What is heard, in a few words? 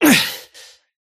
lasthit pain; dota; kez